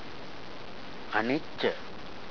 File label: anicca, pali